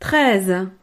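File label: "treize", French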